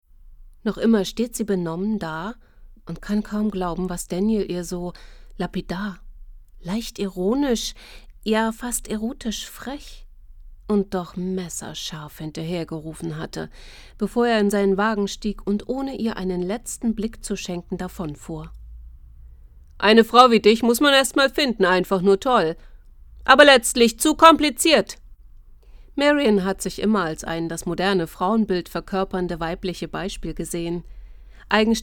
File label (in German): Hörbuch